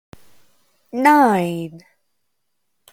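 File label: "nine", English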